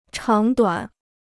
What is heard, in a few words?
"长短", Chinese